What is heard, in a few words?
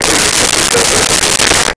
Walkie Talkie Chatter; Communications; 1001 Sound Effects